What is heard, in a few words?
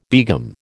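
"begum", American English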